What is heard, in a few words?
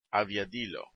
esperanto airplane